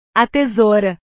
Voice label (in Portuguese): "a tesoura", portugues